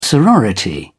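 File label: "sorority", British English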